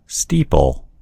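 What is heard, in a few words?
"steeple", American English; gcompris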